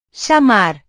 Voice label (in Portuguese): "chamar", portugues; portugues/verbos